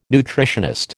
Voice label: "nutritionist", American

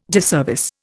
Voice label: "disservice", Indian

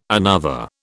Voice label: "another", British

